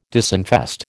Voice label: "disinfest", American